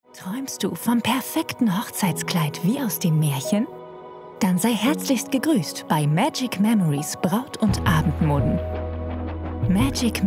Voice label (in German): Werbung Brautmode verfuehrerisch